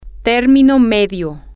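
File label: medium, Spanish, female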